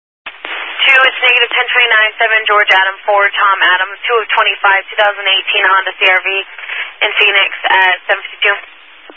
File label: Highway Patrol, Phoenix Metro East Area, scanner